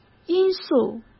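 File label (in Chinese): "因素", Mandarin Chinese; chinese-flashcards; hsk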